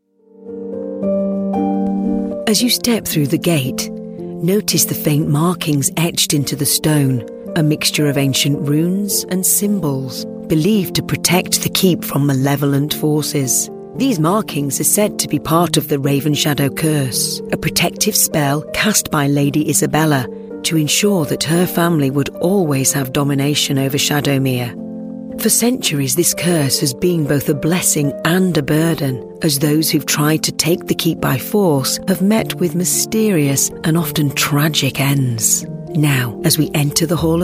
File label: audio tour